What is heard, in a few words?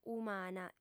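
"umana", female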